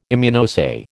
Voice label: "Immunoassay", American